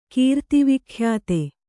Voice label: "ಕೀರ್ತಿವಿಖ್ಯಾತೆ", Kannada

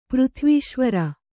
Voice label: "ಪೃಥ್ವೀಶ್ವರ", Kannada

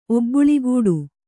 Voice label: "ಒಬ್ಬುಳಿಗೂಡು", Kannada

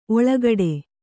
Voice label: "ಓಲೆಗೊಡೆ", Kannada

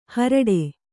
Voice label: "ಹೋರಾಡು", Kannada

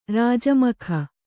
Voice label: "ರಾಜಮಖ", kannada

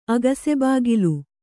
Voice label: "ಅಗಸೆಬಾಗಿಲ್", kannada

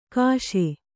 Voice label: "ಕಶಿ", Kannada

